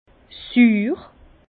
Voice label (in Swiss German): "suur", Bas Rhin; vin; aigre